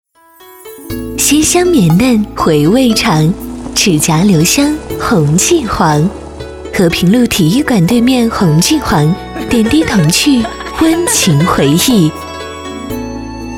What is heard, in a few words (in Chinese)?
广告 茶餐厅 温柔; 品牌形象; 女